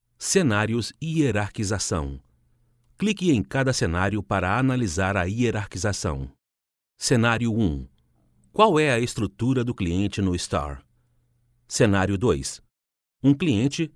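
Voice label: Brazilian Portuguese, male, narration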